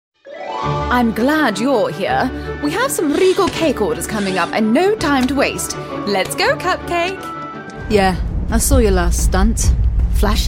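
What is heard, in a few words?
english british video games, female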